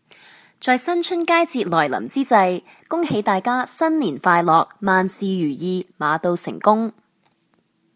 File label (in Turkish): Cince Kadin Kantonca Hong Kong, seslendirme